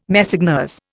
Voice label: "Messeigneurs", Indian